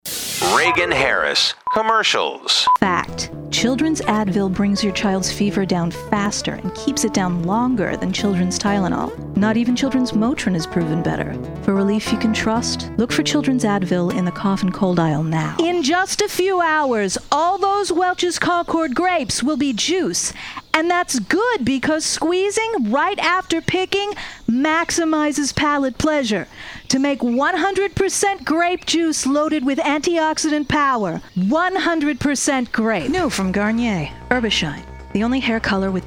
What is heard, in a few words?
spot